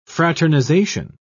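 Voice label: "fraternization", British English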